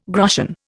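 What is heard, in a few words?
"groschen", Indian English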